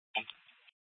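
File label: Scottsdale PD North Dispatch; Nth Dispatch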